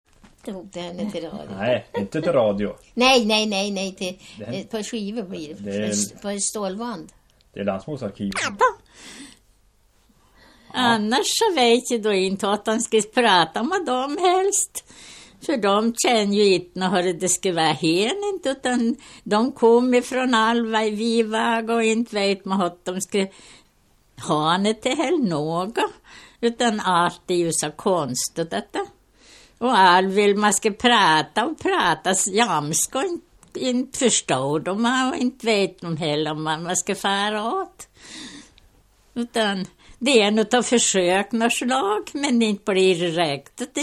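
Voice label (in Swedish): Hackås Jämtland, Svenska sagor, dialekter; Folkminnen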